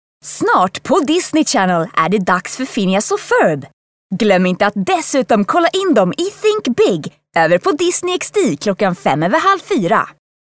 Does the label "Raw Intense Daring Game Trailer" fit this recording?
No